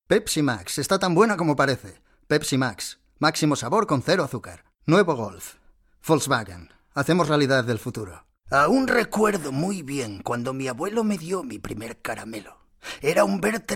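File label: Spanish EU M Commercial, male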